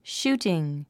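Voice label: "shooting", 英単語 発音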